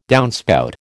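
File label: "downspout", American English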